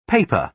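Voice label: "paper", British English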